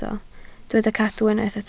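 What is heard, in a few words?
"cadw" Welsh, spoken word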